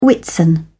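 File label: "whitsun", British English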